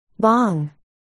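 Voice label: "bong", spoken word, articulation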